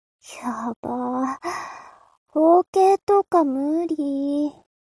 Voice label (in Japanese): mob 女子 台詞